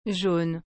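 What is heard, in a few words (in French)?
"jaune", French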